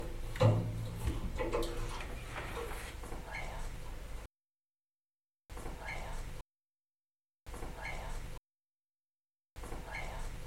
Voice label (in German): Feuer, weibliche Stimme; Untersuchung eines zur Zeit leerstehenden Hauses im Kreis Ravensburg